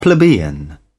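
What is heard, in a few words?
"plebeian", British English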